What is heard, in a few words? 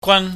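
"gwan", Cantonese, high falling tone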